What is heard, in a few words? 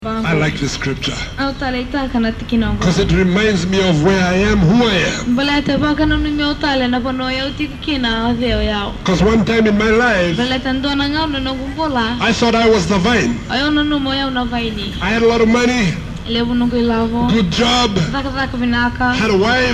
Preacher; fiji